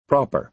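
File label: "proper", American English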